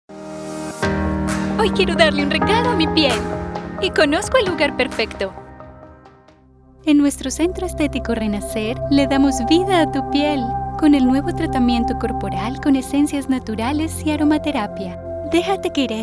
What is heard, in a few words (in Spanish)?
locutora colombiana